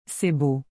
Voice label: "C’est beau.", Québécois French

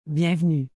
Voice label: "bienvenue", French; (welcome, as a greeting)

